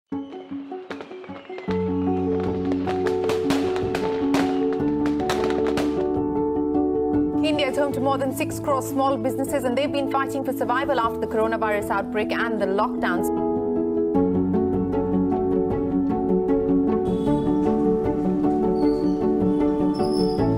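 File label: hindi corporate videos, female; professionelle indische Sprecherin; indian english voice actors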